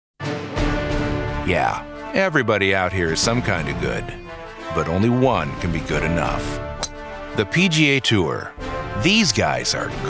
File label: Commercial